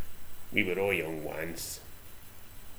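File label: "wir", slang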